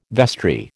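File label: "vestry", American English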